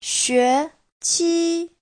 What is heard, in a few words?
"xue2 qi1", Chinese; 学期; học kỳ